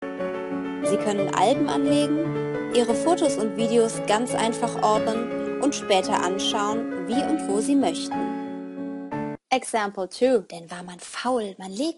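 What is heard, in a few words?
German, female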